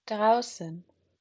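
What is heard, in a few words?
"draußen", German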